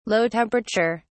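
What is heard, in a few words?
"low temperature", English, British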